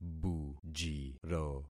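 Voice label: "bujiro", concatenated syllables; Affixing experiment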